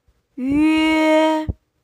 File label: "üe", Pinyin Finals